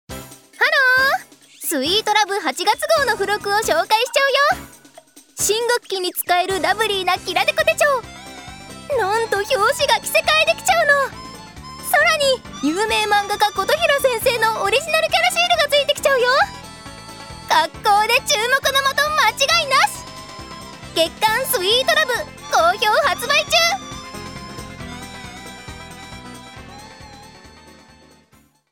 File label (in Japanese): ナレーション